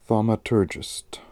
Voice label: "Thaumaturgist", pronounciations; Fourth Way; Beelzebub